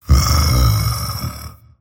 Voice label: happy; dota; dragon knight